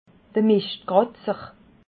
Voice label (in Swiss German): "mischtkratzer", Alsace